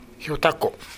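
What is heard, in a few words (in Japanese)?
"yotako", 南山形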